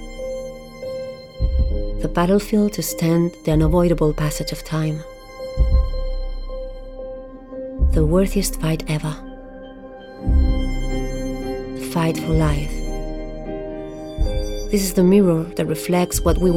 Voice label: female non native english body comercial

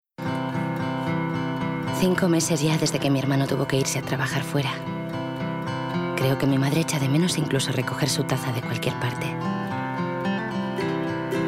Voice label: female spanish coffee comercial; ideasonora

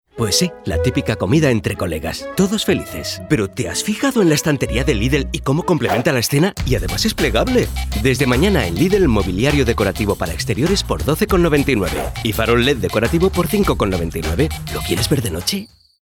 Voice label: male spanish shop comercial; ideasonora

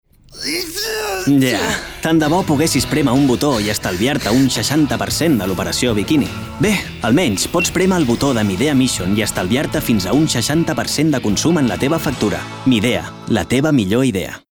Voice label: male catalan energy comercial

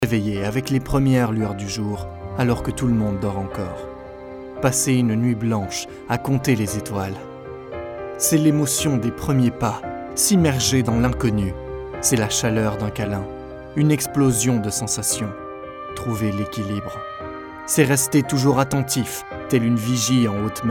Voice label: male french aventure comercial

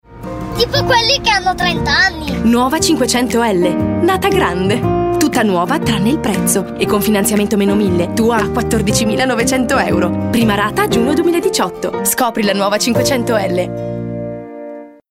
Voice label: female italian comercial

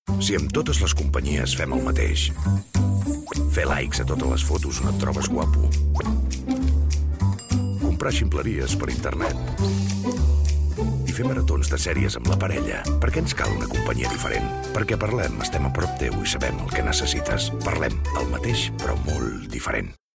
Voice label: male catalan telephone comercial; ideasonora

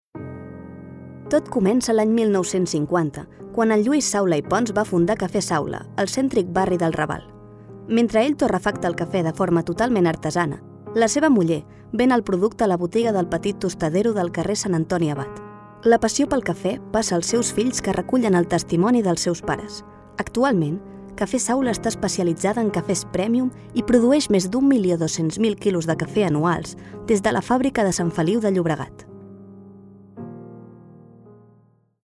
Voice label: female catalan coffee comercial; ideasonora

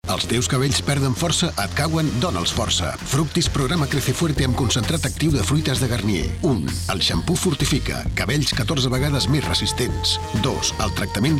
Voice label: male catalan comercial; ideasonora

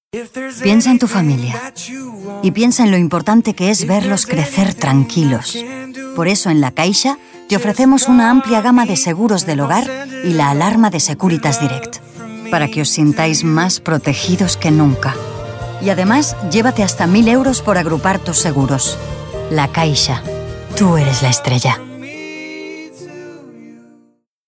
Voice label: female spanish comercial; ideasonora

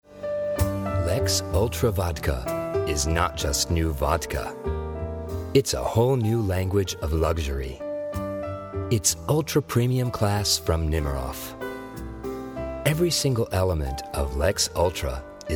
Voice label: male american english drink comercial; ideasonora

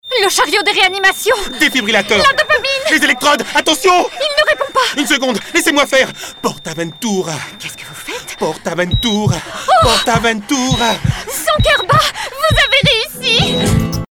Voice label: male french park comercial; ideasonora

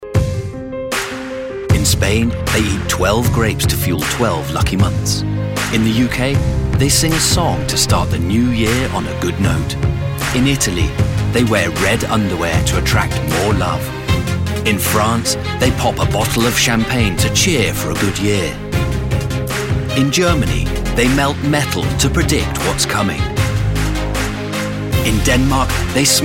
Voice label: male british english car comercial; ideasonora

